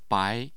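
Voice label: "bai", Mandarin Chinese, tone 2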